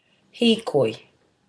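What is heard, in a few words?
"hikoi", Māori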